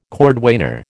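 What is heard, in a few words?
"cordwainer", American English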